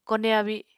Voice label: "kone abi", Apatani